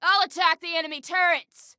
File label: Self Attack Turrets, Female